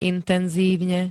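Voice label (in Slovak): "intenzívne", Slovak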